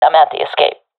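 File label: guarding escapezone, professional female; vo; Counter Strike Model Pack; CS:GO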